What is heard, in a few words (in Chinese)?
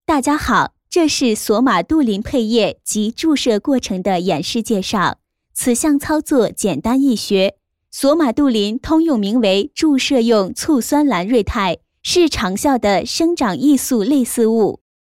女, 解说, 配液及注射过程的演示介绍配音; 索马杜林